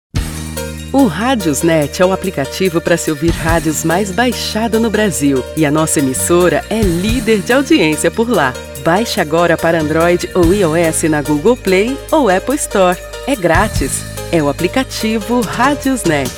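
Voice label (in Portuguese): Nossa emissora líder de audiencia feminino; RadiosNet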